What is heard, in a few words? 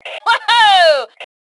Woohoo, Female; T2 Female 03